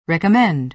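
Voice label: "recommend", American English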